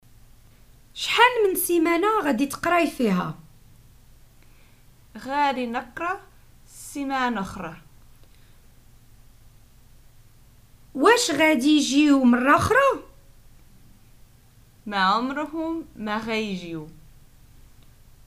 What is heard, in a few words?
prompts, Moroccan Arabic